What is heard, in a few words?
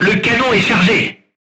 voice message charged, crew tanks french